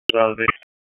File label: Police Ops 5, P25; Raleigh; wake